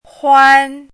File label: "huan1", pinyin